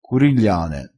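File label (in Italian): "curigliane", dialettale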